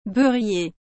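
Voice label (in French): "beurrier", French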